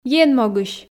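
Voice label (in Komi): "ен могысь", Komi, female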